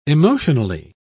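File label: "emotionally", British English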